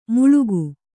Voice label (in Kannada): "ಮುಳುಗು", Kannada